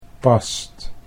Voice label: "past", Dutch

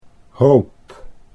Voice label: "hoop", Dutch

